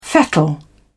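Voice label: "fettle", English, British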